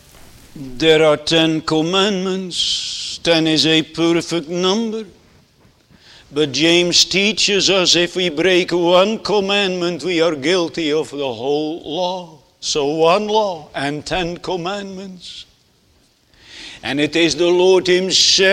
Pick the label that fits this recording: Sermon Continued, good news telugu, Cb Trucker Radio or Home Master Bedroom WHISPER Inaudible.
Sermon Continued